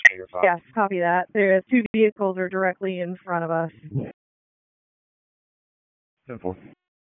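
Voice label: Public Safety Tactical, p25; wake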